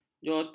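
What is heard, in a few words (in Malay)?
"jotos", Malay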